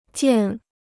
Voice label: "件", Chinese

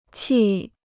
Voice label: "气", Chinese

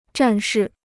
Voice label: "战士", Chinese

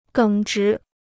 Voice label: "耿直", Chinese

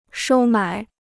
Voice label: "收买", Chinese

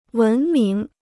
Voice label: "文明", Chinese

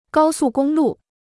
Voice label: "高速公路", Chinese

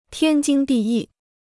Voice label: "天经地义", Chinese

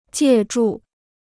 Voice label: "借助", Chinese

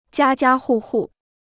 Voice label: "家家户户", Chinese

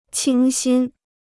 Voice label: "清新", Chinese; 清新 (qīng xīn); fresh and clean

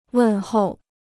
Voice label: "问候", Chinese

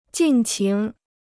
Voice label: "尽情", Chinese

